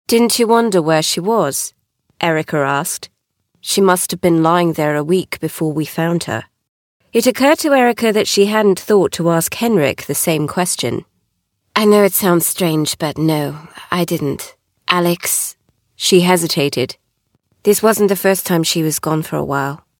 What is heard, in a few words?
Audiobook